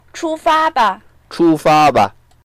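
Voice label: "chu1fa1ba", Mandarin Chinese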